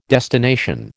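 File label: "destination", American English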